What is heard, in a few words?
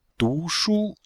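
"du2shu1", Chinese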